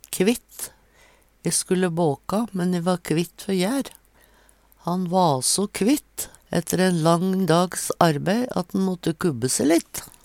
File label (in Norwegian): "kvitt", Numedalsmål